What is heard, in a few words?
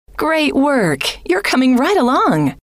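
great Work You Re Coming Right Along, female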